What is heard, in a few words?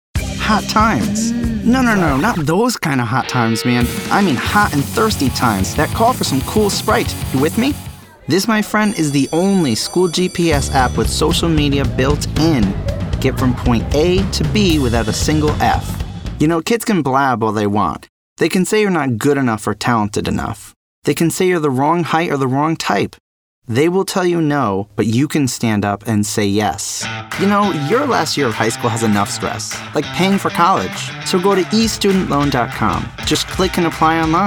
commercial